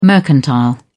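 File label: "mercantile", British English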